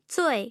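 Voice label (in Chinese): "zuì", Chinese; tough sounds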